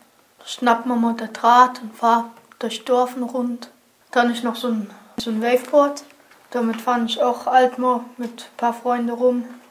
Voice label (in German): platt im alltag, Hunsrück